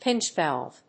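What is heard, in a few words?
"pinch valve", English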